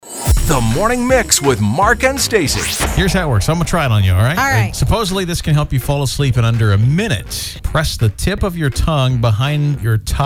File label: sleepy promo